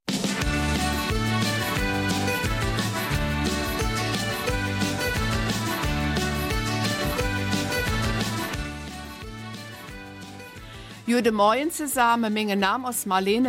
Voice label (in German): Eifeler Mundart; Neu im Amt